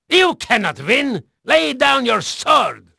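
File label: Dutch male, voice; Pirates Of The Caribbean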